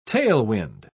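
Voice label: "tailwind", English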